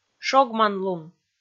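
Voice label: "шогманлун", Komi, female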